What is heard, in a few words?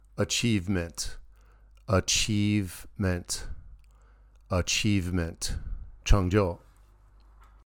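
"achievement", English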